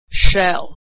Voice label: "shell", pronunciation

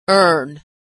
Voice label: "earn" "urn"

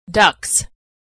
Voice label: "ducts", pronunciation; Homophones; ducks / ducts

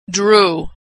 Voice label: verb "drew", pronunciation

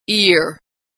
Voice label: "ear", pronunciation

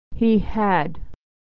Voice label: "he had", pronunciation

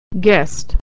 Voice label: "guest", American English; homophones

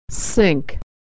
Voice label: "sink", pronunciation

